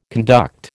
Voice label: "conduct", American

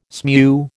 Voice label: "smew", American

